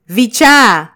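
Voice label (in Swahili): "vichaa", Swahili, Kenya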